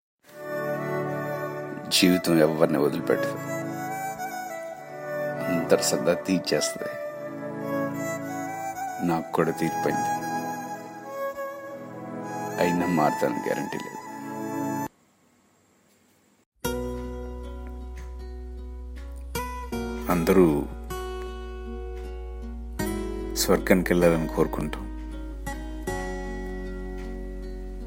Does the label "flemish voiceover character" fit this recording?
No